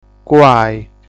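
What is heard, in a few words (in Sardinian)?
"Cuai", Sardinian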